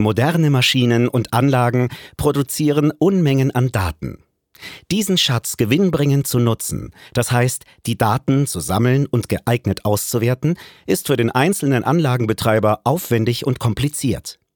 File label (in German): Werbung